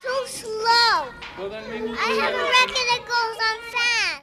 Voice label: children at school talking about various